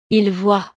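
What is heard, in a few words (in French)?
"ils voient", French; Ellos ven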